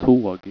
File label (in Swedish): "taag", Swedish